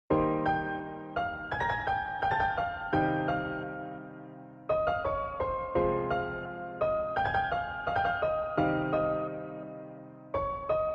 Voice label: new rap